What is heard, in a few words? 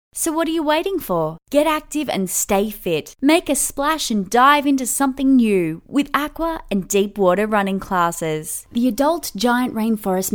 commercial voice